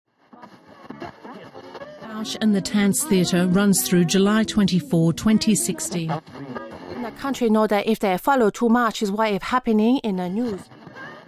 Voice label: radio

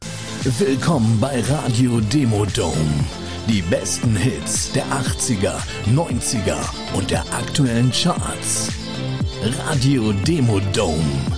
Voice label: Station Voice, Radio, German; Station Voice Demo/Radio

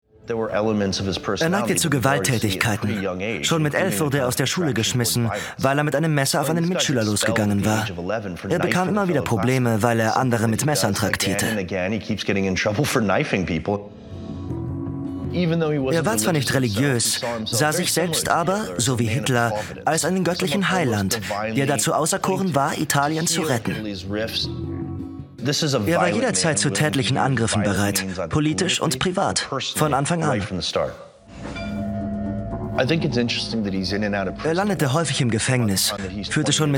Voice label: VOICE OVER; ORF; Europas erster Faschist Benito Mussolini

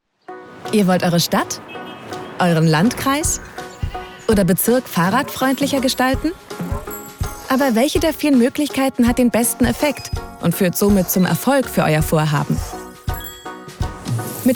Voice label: Explainer; Plan F

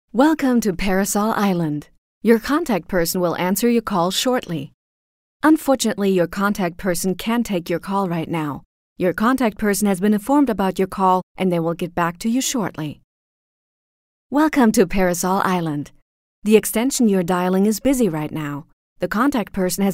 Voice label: US IVR; Parasol Island

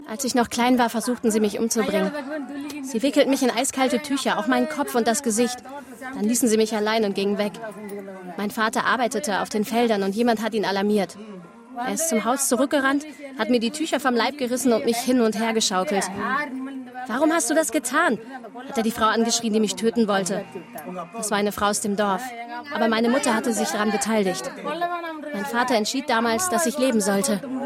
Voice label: voiceover; indiens ungewollte toechter

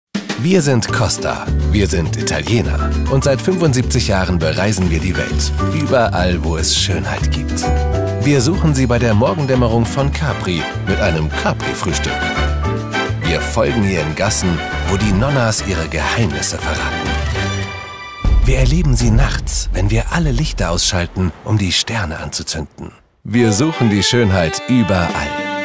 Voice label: Commercial, Commercial (Werbung), German; voice; TV-Werbung - Costa Cruises

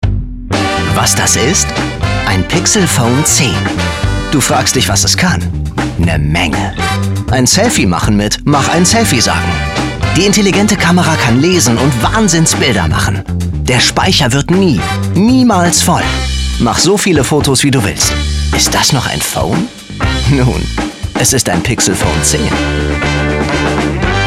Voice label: Relaxed, German; voice

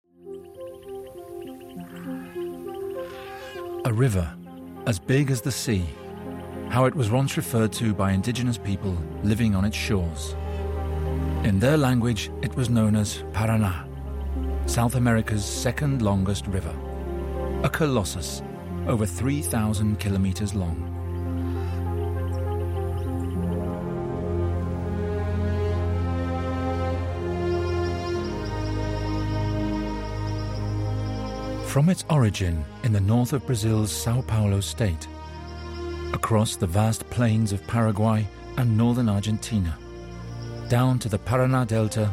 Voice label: Doku, ENGL; Parana

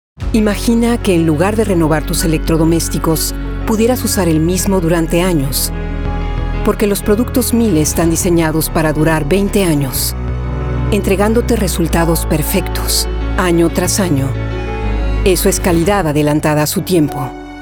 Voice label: Spot Latin America; Spot Miele LATAM; Spanish (Latin America)

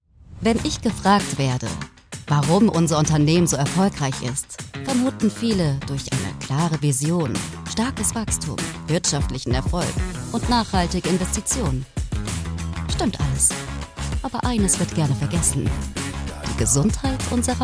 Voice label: Voiceover; Hallesche; LOFT VOICES

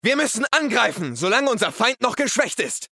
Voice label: RPG Warrior

